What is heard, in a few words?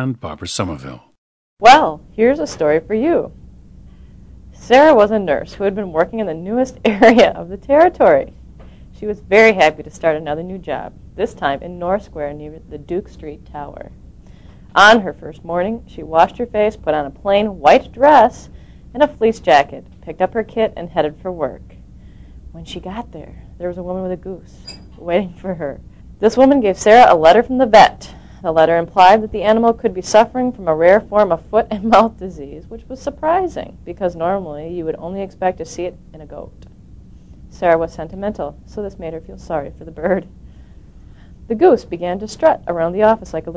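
wisconsin, dialects of English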